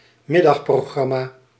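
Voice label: "middagprogramma", Dutch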